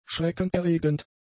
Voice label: "schreckenerregend", German; adjectives